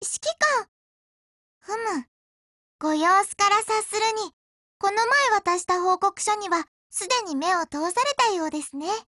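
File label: hello, Japanese; RPK203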